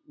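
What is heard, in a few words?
"braille", Malay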